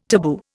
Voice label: "taboo", Indian